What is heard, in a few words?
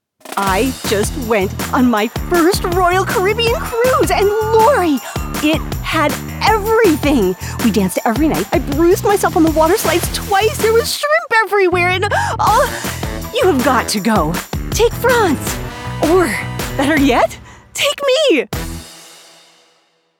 Commercial VO; Royal Caribbean